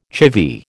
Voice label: "chivvy", American